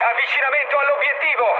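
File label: Italian near waypoint mood med, speech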